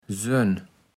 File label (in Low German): "sön", Platt